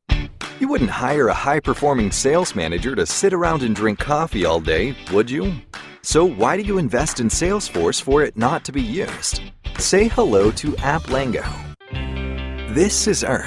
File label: Explainer